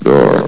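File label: "door", English; valve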